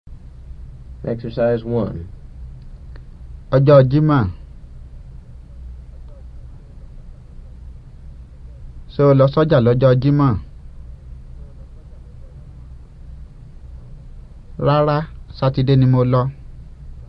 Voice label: Yoruba; FSI; Basic

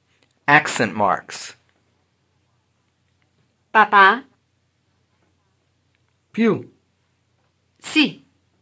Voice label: Accents, Italian; FSI; FAST

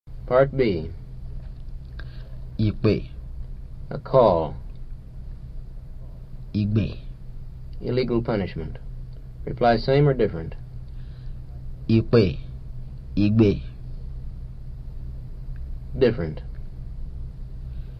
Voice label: Yoruba; FSI; Basic

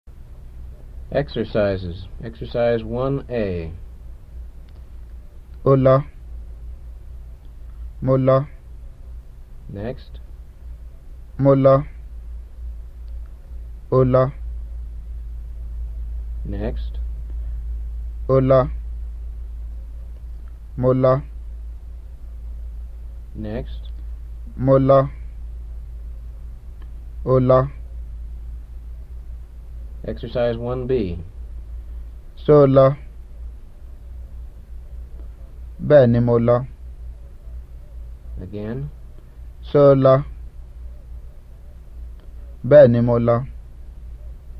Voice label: Yoruba; FSI; Basic

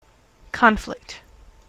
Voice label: "conflict" noun, En-us

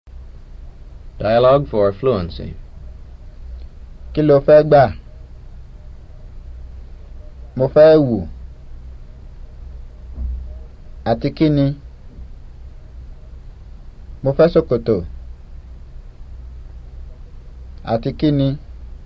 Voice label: Yoruba; FSI; Basic

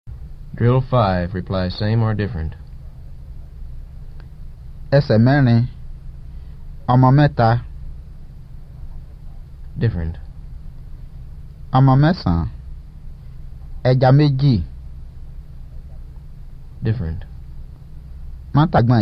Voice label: Yoruba; FSI; Basic

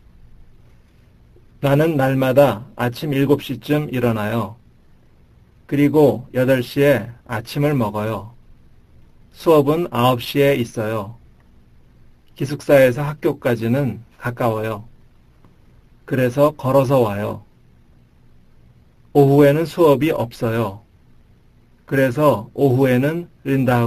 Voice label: Korean Narration; DLI; Lesson 5 - Narration

